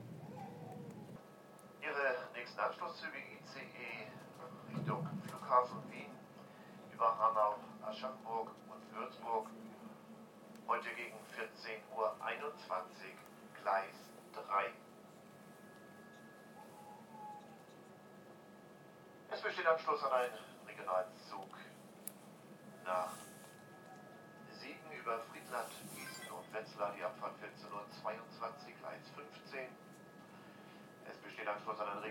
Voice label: announcement aboard german intercityexpress train arriving at frankfurt hauptbahnhof